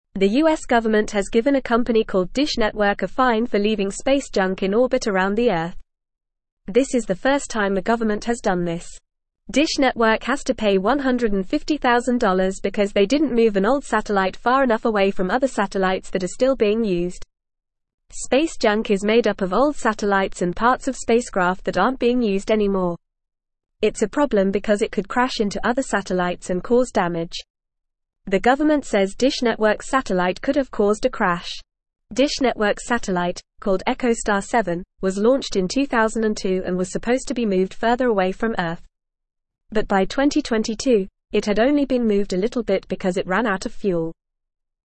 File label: English Upper Intermediate FAST Reading; US Government Fines Dish Network for Space Junk; English Newsroom